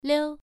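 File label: "liu", tone 1, pronunciation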